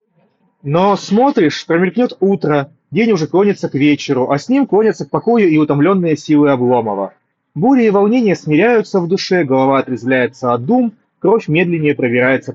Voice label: Mic noise; Запись с микрофона гарнитуры (в шумной обстановке); Huawei FreeArc